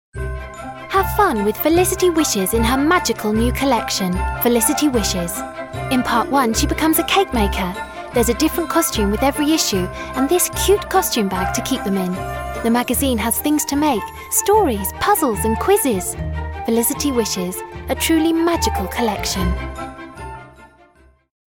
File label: voiceover